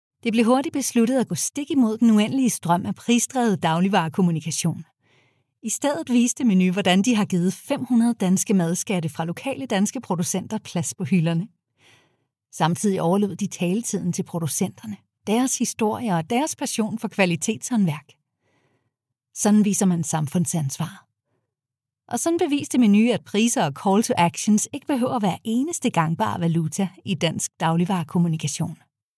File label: female danish voice over upbeat